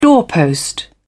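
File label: "doorpost", British English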